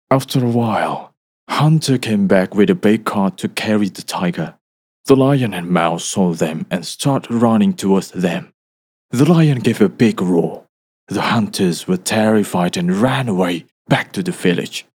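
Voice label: KARAKTER English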